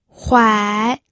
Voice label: "huai3", pinyin audio